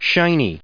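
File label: "shiny", US English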